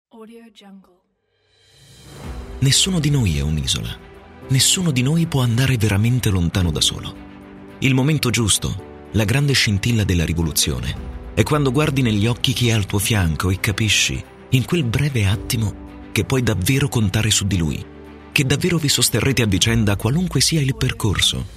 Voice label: mysterious excited voiceover